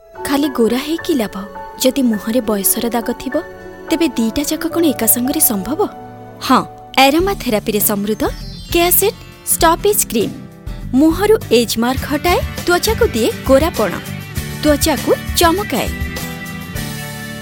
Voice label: FEMALE AD

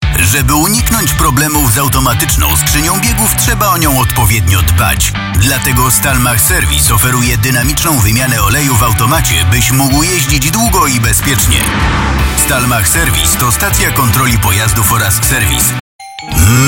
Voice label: Commercials

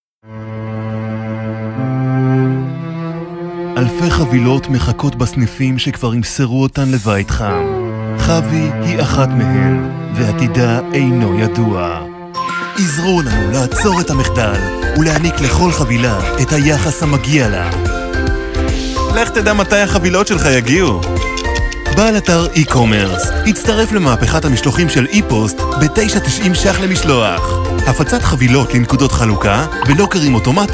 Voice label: Commercial

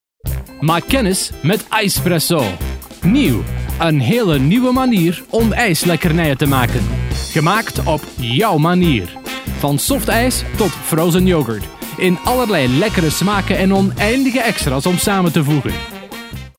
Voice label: Commercial

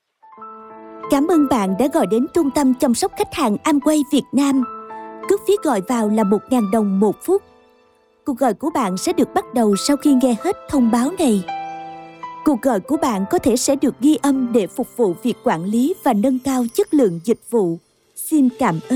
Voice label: Commercial

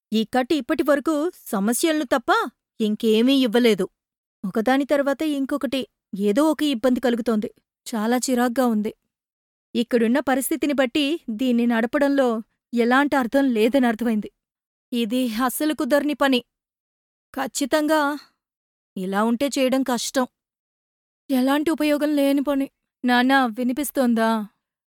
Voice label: Narration

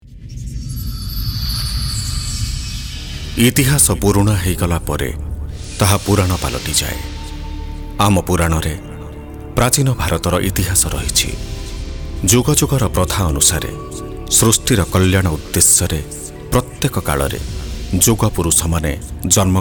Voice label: MALE FEATURE

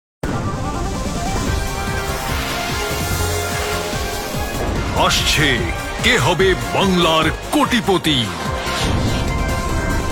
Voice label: Promo Voice Sample

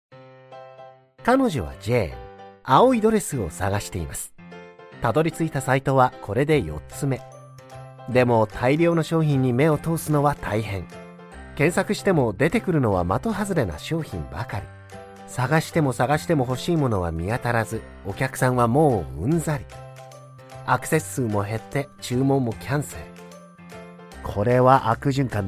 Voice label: Corporate

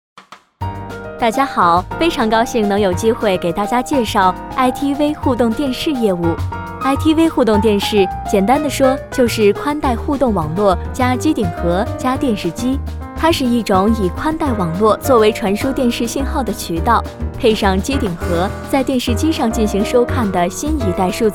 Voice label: Commercial

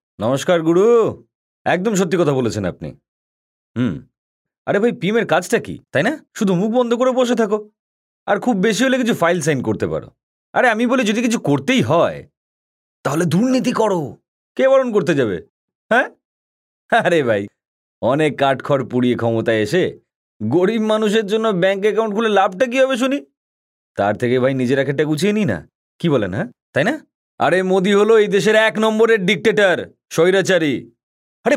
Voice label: Character Voice Sample

